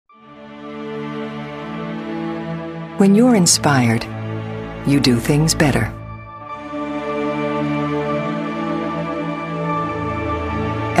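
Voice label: corporate healthcare inspirational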